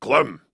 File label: Banter; Warframe; Clem; Dialog Barks Battle